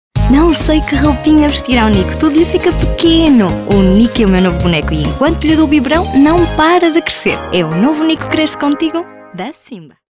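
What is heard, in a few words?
Portuguese, female, commercial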